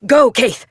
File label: Horse Sprint Adult; Duviri